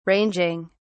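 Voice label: "ranging", British English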